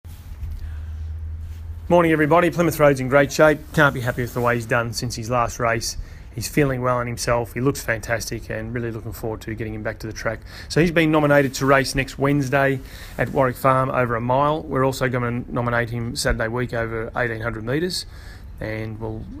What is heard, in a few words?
NZ voice note; Plymouth Road